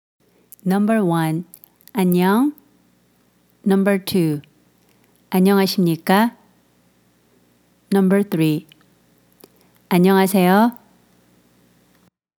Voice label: Hello and Hi in Korean

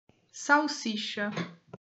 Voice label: "salsicha", portuguese

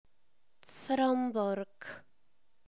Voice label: "frombork" Polish

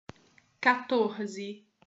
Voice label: "catorze", Portuguese

